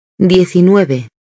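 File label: "diecinueve", Spanish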